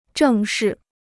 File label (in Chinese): "正是", Chinese